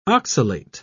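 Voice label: "oxalate", British English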